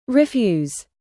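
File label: "produce", verb, British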